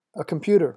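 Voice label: "a computer", English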